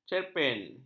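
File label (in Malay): "cerpen", Malay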